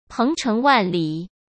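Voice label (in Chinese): "鹏程万里", Mandarin Chinese; hsk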